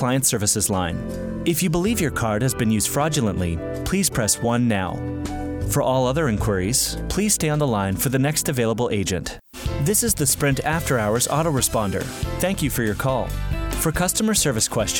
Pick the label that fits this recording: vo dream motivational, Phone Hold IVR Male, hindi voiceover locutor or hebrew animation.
Phone Hold IVR Male